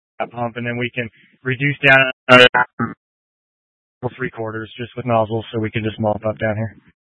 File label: Incident Tac 2, Scanner audio recording